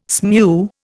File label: "smew", Indian